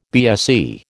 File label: "BSE", American